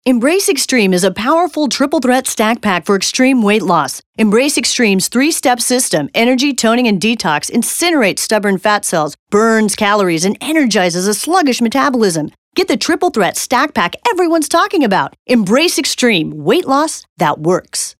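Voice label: Dry voice over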